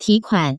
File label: "qukuan", Taiwan Chinese; bank; atm; credit card